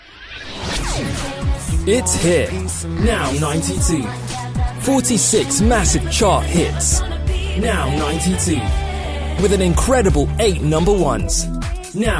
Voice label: Commercials

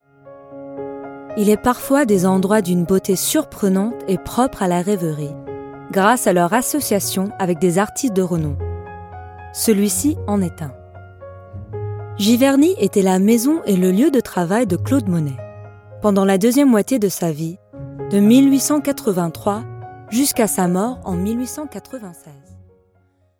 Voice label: French

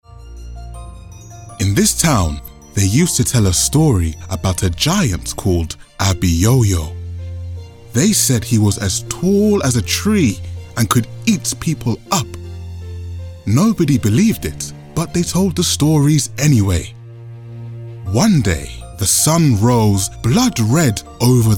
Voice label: Narration, black british

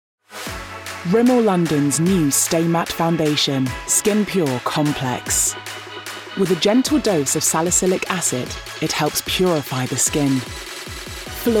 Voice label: Commercial

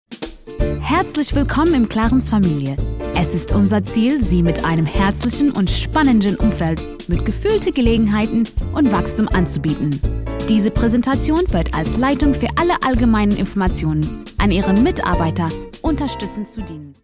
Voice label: German

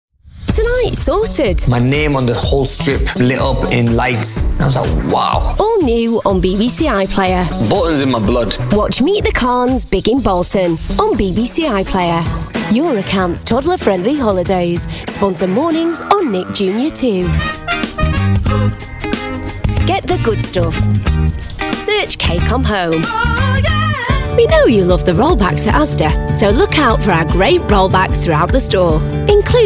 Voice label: commercial

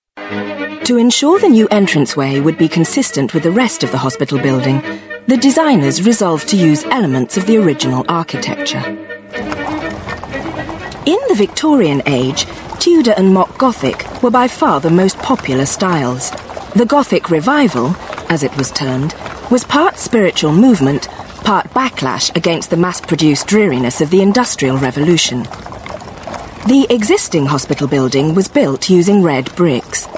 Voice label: Narration, Mid-tone. Witty & versatile; 20s-50s; everything from RP to African and beyond

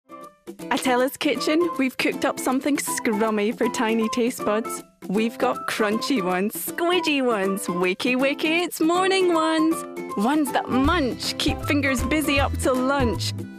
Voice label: Commercials, female

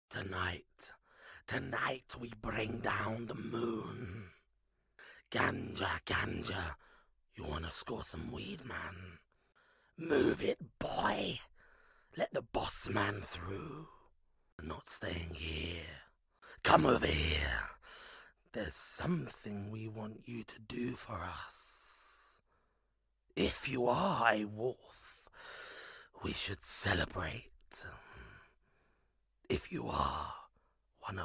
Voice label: Character

